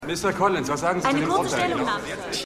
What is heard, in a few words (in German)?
Reporter Reporterin; Das Wunder Von Manhattan